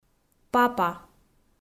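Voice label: "папа", Komi, female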